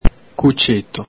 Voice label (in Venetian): "cuceto", Veneto